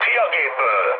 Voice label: bailout, speech hun; War Thunder

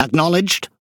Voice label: Speech Quick Commands Aye Aye, EN GB; World Of Warships

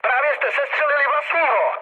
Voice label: player killed ally, speech cze, mood low; War Thunder

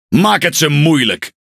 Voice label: NL Speech Quick Commands Good Luck; World Of Warships

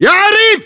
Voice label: rank corporal, battle chatter, english

